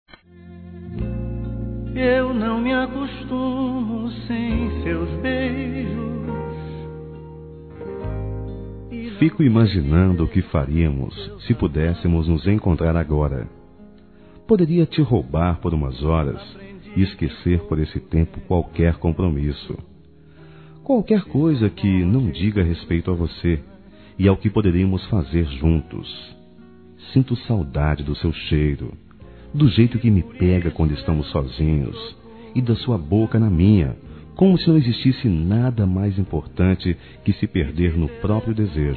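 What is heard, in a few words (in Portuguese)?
ROMANTICO PICANTE, Telemensagens, Românticas masculino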